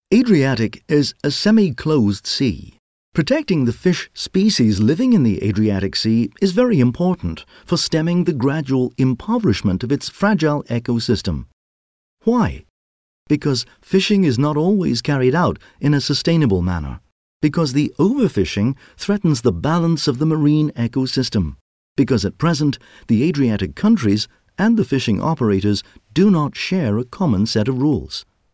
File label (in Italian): inglese uk